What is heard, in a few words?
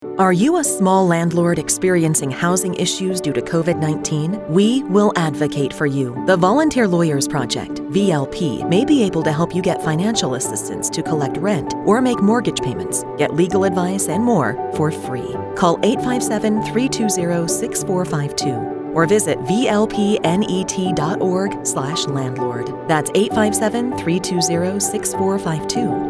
Radio English